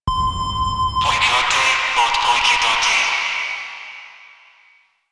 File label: Ship Announcer